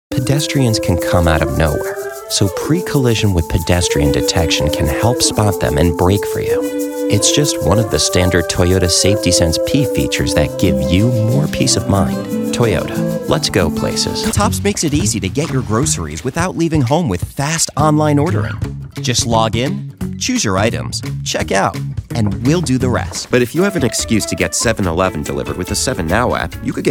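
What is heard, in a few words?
us commercials, American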